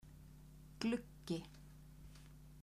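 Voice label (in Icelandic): "gluggi" Icelandic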